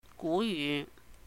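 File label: "Gu Yuh", Cantonese; old saying; 古語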